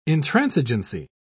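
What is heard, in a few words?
"intransigency", English, uk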